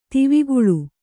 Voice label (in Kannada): "ದೇವಕುಳ", kannada